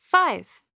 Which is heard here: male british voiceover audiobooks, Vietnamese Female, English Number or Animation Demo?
English Number